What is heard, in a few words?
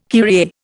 "Kyrie", Indian